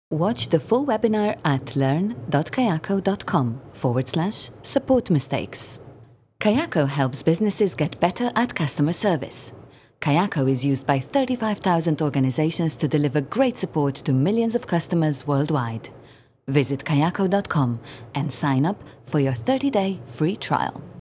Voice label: female slovenian english with slovenian accent corporate; kayako